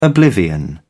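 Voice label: "oblivion", British English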